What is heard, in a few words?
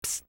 "pssst", voices